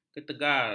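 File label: "ketegar", Malay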